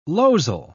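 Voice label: "losel", British English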